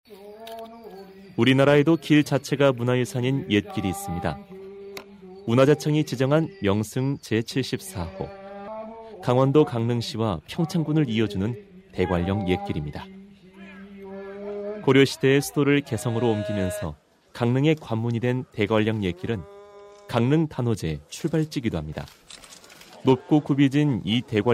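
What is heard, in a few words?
Korean, male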